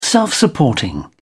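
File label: "self-supporting", British English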